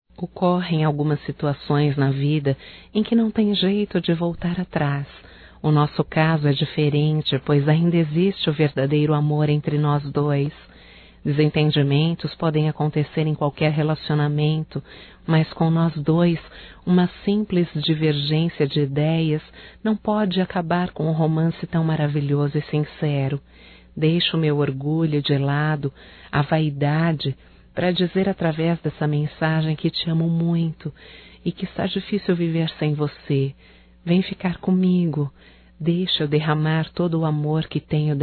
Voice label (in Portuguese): ROM ESTAO SEPARADOS (F), Telemensagens Românticas fem